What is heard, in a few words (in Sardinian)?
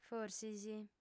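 "forsis", female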